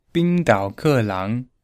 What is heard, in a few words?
"bing dao ke lang", Mandarin